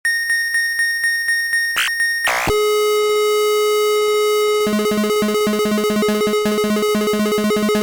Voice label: alien first words plus loop